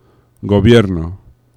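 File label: "gobierno", Spanish; laboratori fonetica; oclusives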